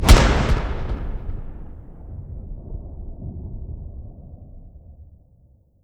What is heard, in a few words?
shout unrelenting force; Skyrim Special Edition